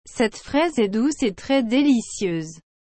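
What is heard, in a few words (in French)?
"Cette fraise est douce et très délicieuse", French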